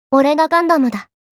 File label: Gundam, Kawaii Voice; Memes